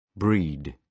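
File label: "breed", British English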